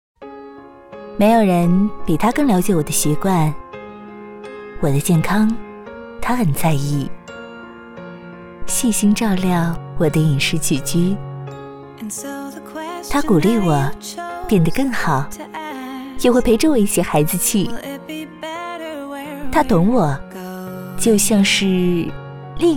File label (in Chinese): 其他 旁白 法式多门冰; 海尔法式多门冰